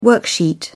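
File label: "worksheet", British English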